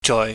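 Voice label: "joi", Cantonese, low level tone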